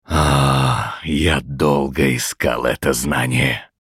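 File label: Hero Level Up Russian, Мужчина; Diablo III: обзор обновления PTR 2.6.0 — озвучка некроманта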